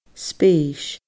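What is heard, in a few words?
"spéis", Scottish Gaelic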